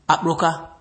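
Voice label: "akpuruka", Nigerian Pidgin, male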